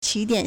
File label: "qi3 dian3", Chinese